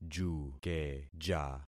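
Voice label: "jukeja", concatenated syllables; Affixing experiment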